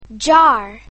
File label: "jar", english; grade1; basic sounds 2